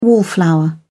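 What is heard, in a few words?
"wallflower", British English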